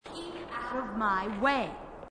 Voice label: female marine, marine tough

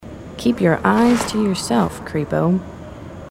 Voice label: female marines

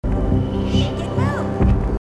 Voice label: grunt; h2dialogue; grunt crazy

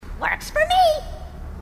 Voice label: grunt; h2dialogue; grunt crazy

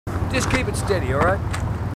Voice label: marines, aussie; h2dialogue

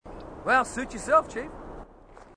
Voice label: marine, aussie; h2dialogue

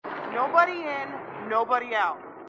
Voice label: nobody in nobody out, marine sassy

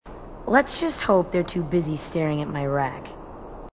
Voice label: female marine, marine tough

